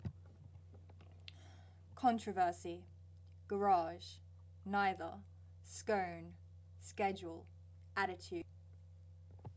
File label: female; sydney australia